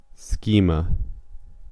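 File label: "schema", American English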